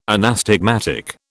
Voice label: "anastigmatic", British

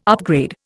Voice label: "upgrade", Indian

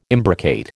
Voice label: "imbricate", American

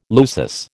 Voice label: "lusus", American

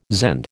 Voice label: "Zend", American

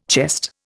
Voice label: "chest", Indian

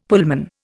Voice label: "Pullman", Indian; Dictionary

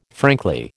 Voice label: "frankly", American

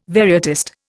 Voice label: "varietist", Indian

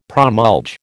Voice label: "promulge", American

